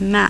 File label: burmese